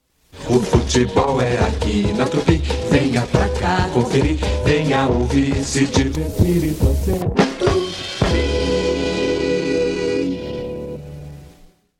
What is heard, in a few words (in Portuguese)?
Futebol aqui, radio; Super Radio Tupi